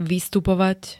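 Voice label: "vystupovať", Slovak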